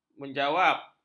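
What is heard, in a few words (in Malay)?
"menjawab", Malay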